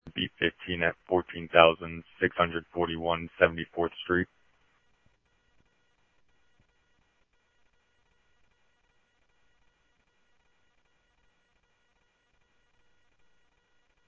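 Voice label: police department, scanner audio trunk; ThompsonPeakHigh; SCTSDLE PD - PD2 ScottsdalePolice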